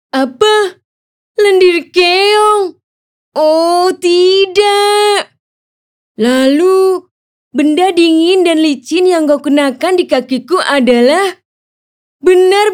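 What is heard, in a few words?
character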